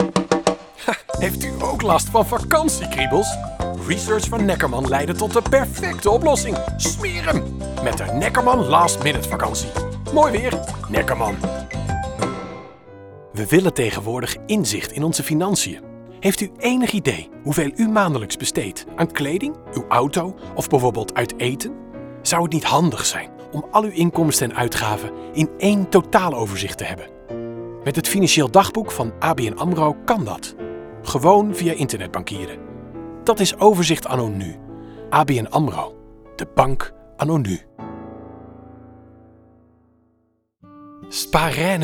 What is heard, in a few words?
Commercials